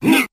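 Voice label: "huh", vocal sample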